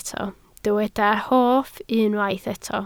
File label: "hoff", Welsh